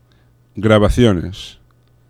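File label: "grabaciones", Spanish; laboratori fonetica; paraules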